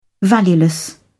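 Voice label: "valueless", British English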